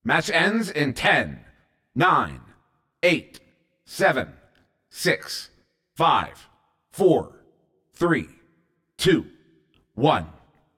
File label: 10 Second Countdown, CTF Announcer